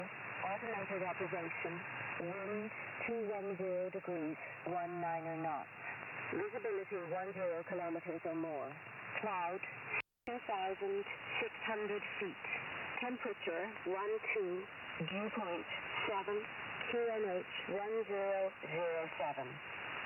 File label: Shannon Volmet; 5505khz